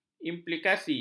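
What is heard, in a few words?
"implikasi", Malay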